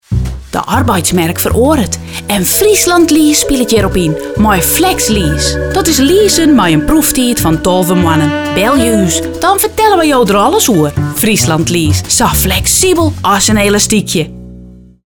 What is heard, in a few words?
Commercial; Fries; Friesland; Lease